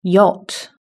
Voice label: "yacht", british english